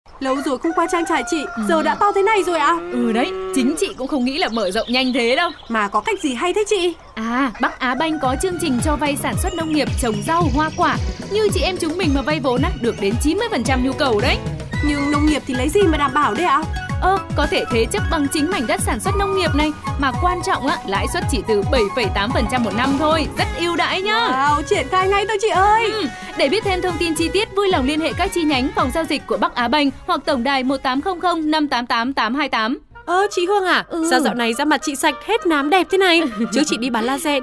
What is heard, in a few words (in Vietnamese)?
giong nu; Mẫu giọng thu voice quảng cáo VOV; MC Miền Bắc